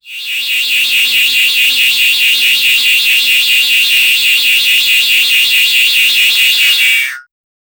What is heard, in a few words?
a robot speaking; artificial intelligence